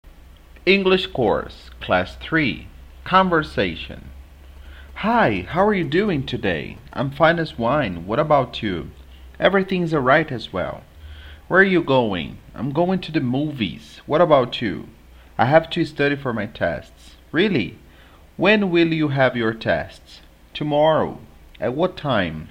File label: conversation; english course